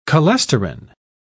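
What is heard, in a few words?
"cholesterin", British English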